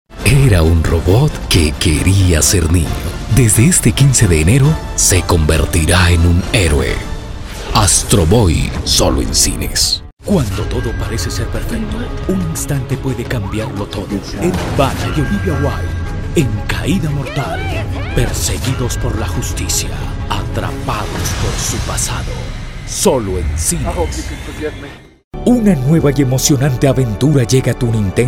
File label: spanish latin american movie trailers